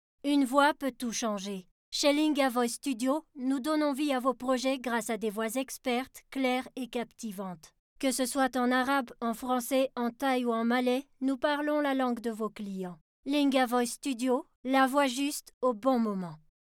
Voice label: Commercial